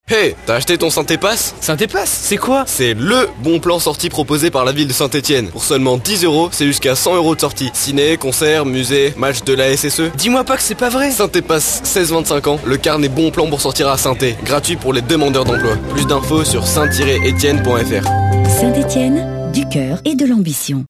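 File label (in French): spot, spots radio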